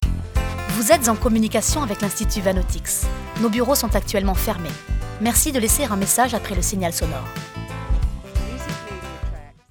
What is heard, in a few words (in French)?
MESSAGERIE voix feminine